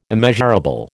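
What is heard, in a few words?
"immeasurable", American English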